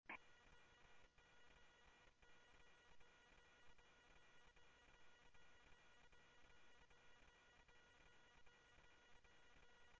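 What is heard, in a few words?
police radio dispatch, ScottsdalePolice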